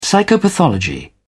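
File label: "psychopathology", British English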